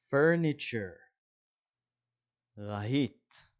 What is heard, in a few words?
"furniture" – "רהיט"